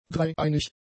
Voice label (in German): "dreieinig", German; adjectives